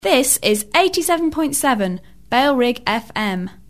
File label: female, no bed; this is 87.7 bailrigg fm; bfm jingles ads RSL 1994